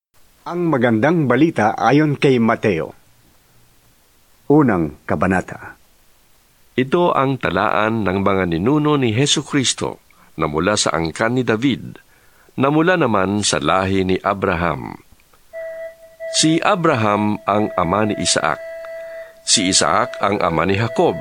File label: Tagalog